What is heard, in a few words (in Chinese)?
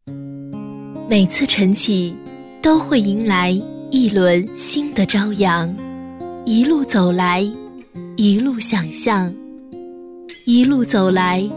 女 专题, 国语女声配音; 人物 农行人